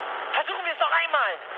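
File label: German bomb failure mood high, speech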